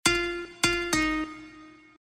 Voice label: chinese solo